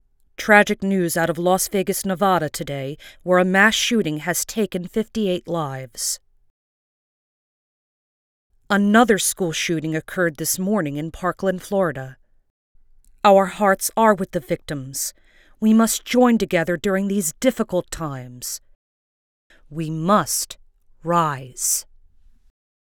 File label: News Reports; Mass Shooting